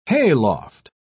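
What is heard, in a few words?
"hayloft", British English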